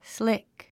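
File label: "slick", English word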